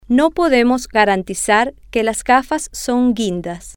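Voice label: no Podemos Garantizar Que Las Gafas Son Guindas, Spanish, female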